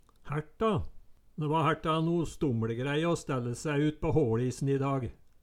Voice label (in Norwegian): hærta, Numedalsmål